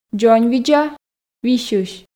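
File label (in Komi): дзоньвидза висьысь, Komi, female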